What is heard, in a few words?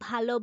Female